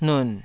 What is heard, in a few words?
"nun3", Taiwanese